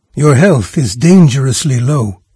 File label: health, NARRATOR, en-US; Fate The Traitor Soul